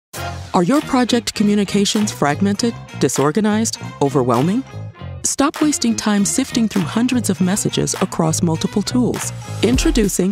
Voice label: Corporate Narration